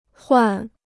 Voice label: "换", Chinese